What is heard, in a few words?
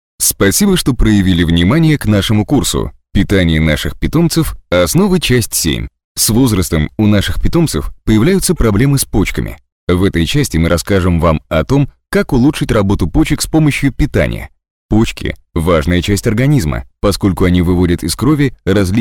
locutor ruso russian male voiceover